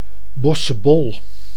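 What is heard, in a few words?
"Bossche bol", Dutch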